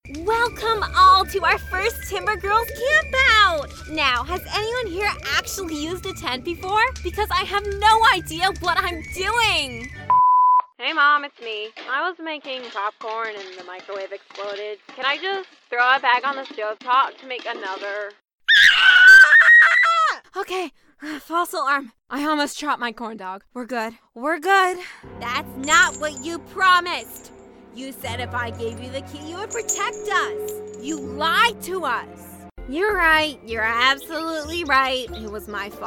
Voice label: Animation